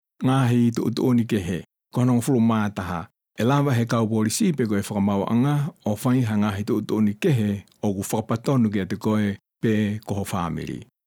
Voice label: tongan